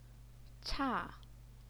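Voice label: "cha", Mandarin Chinese, fourth tone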